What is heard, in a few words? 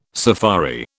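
"safari", British English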